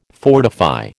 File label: "fortify", American